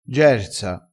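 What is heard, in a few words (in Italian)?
"gersa", vocabolario dialettale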